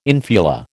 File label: "infula", American